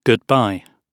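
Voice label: "goodbye", British English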